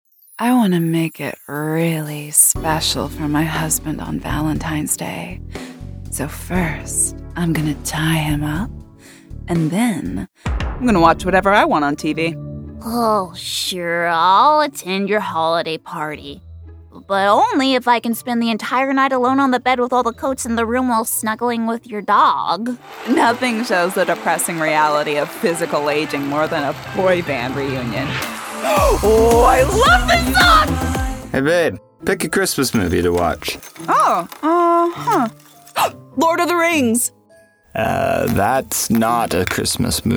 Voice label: Animation